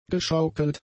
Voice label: "geschaukelt", German; adjectives